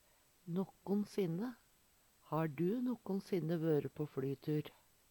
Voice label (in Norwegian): "nokkon sinne", Numedalsmål; nokon gong